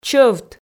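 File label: "чӧвт" Komi, female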